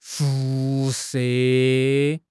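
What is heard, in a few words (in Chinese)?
"辐射", Mandarin Chinese; HSK6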